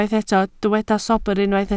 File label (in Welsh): "sobr", Welsh